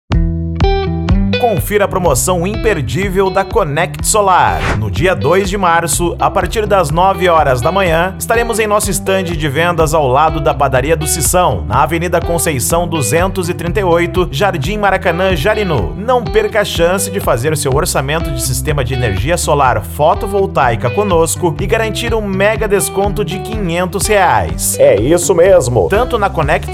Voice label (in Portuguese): Locutor; Conecct Solar Energia Solar Jarinu SP